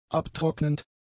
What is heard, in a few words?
"abtrocknend", german; adjectives